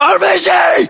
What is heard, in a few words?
US threat rpg, battlechatter, english